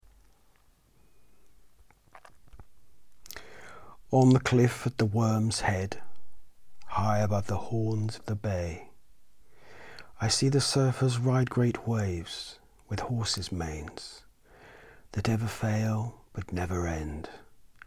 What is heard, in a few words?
poem; british-seashore